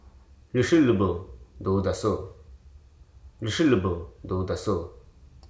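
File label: "indulge", English, Hruso dictionary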